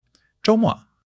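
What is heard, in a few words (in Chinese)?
"zhou1 mo4", Chinese; HSK3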